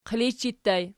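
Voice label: "qilijchi" ergative plural, Archi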